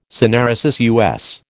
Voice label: "syneresis", US